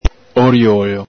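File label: "oriolo", Venetian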